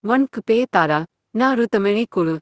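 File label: Tamil, India; iOS 26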